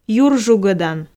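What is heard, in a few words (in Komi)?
"юржугодан" Komi, female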